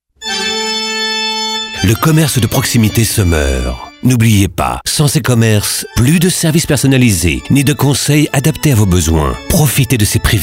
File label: spot, radiopub